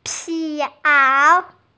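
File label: "pi2ao3" Mandarin Chinese, female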